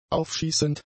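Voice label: "aufschießend", German; adjectives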